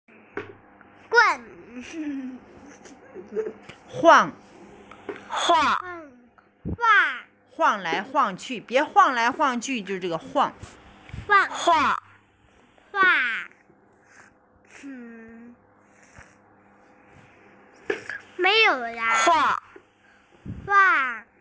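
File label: "huang4", Mandarin Chinese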